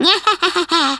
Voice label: "revenge", Hungarian; Worms Armageddon